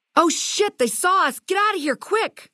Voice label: female adult take water; FNV